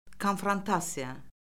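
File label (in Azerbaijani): "konfrontasiya", Azerbaijani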